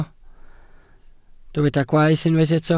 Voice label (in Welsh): "gwaeth", Welsh